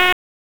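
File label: Raleigh Police North District Tactical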